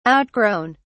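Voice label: "outgrown", British English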